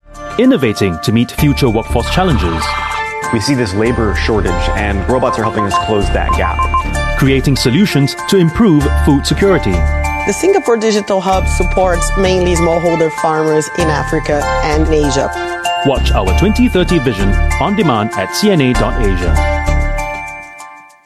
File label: Asian English trailer; Our 2030 Vision